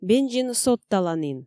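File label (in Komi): бензин содталанін, Komi, female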